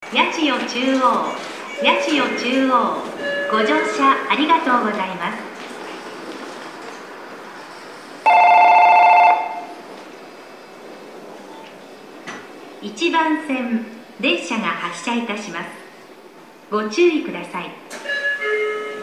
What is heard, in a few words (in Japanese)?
到着発車, 駅放送; 1番線; 音質が悪め 以前より、接触の悪いスピーカーがあります。; 2014年2月27日頃より接近メロディ付きの新放送に切り替わりました。; yachiyo-chuo